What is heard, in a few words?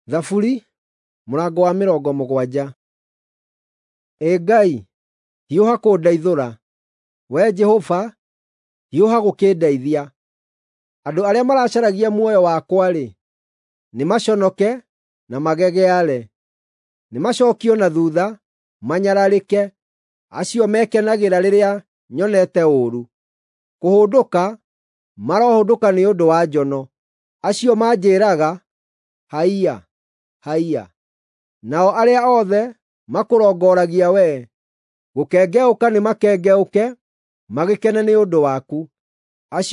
psalms, gikuyu drama